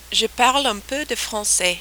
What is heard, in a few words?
"je parle un peu de francais", French